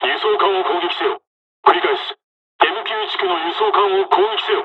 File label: dialogs japanese; War Thunder